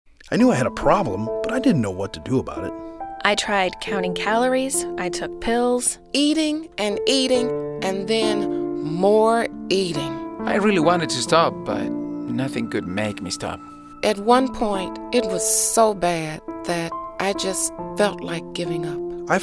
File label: Radio PSA